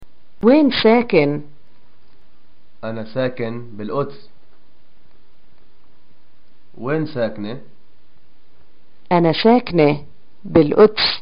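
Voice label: "getacqua where do you live", Palestinian Arabic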